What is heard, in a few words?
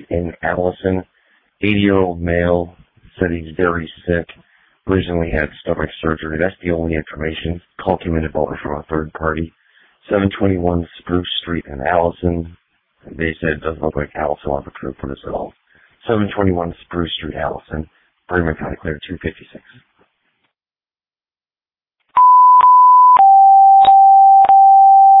Waverly Ambulance, dispatch